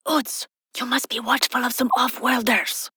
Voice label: Ost Fem en; Cetus